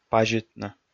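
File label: "пазитны", Komi, male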